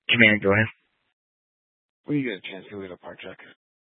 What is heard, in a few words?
Incident Tac 1, scanner